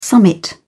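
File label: "summit", British English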